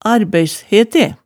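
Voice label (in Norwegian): "arbæishete", Hemsedal dialect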